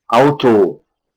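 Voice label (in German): "Auto", German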